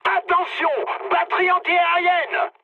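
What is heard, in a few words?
damaged aa, mood high, French; War Thunder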